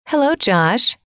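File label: "hello", female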